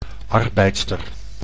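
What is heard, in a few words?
"arbeidster", Dutch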